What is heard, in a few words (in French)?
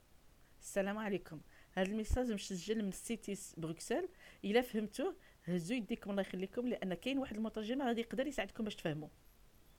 Arabe maghrebin